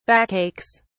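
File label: "backaches", British English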